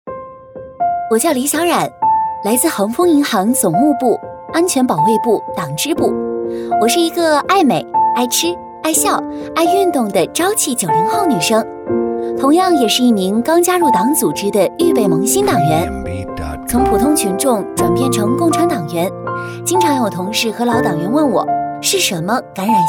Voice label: 女 独白 人物 清新活泼

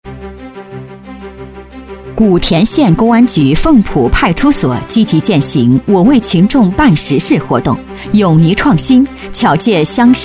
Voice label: 女,专题片,大气; 法治

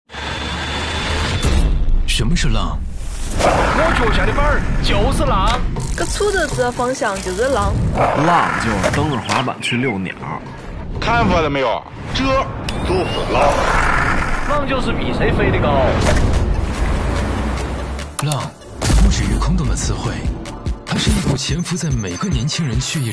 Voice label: 男 科技广告; 京东造浪计划

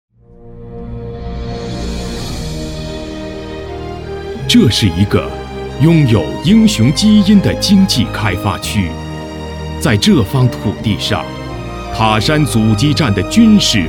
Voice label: 英男,激昂大气专题

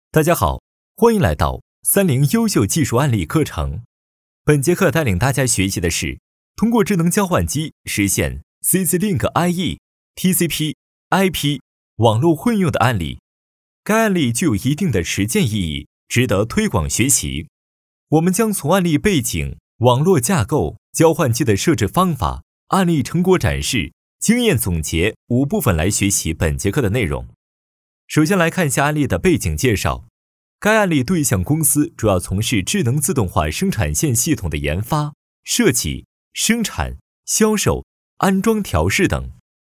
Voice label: 男 专题解说 课件

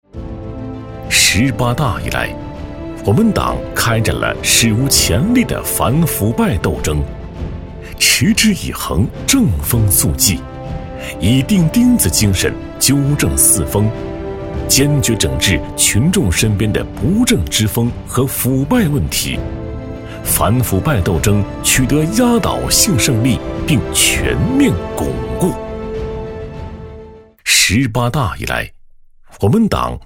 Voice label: 男 专题 反腐败斗争

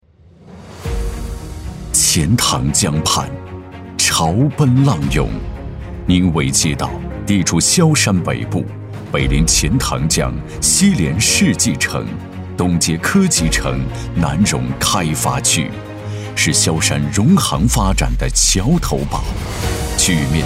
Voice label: 男 恢弘大气

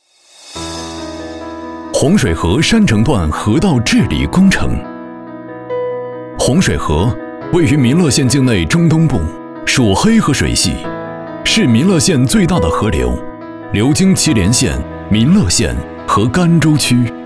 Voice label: 男 专题 河道汇报稳重磁性

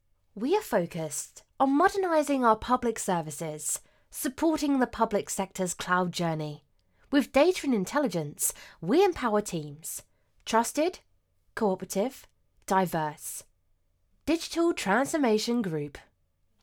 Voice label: 宣传

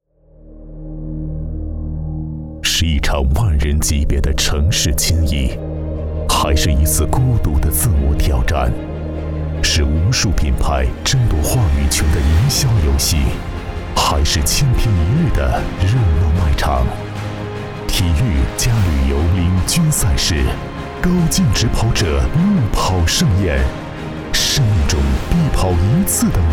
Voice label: 男 宣传 马拉松 高端 磁性 深沉

